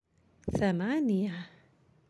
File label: "eight", Arabic